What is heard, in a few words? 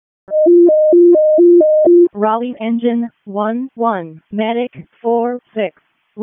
EMS ALERT, p25